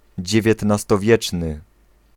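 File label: "dziewiętnastowieczny", Polish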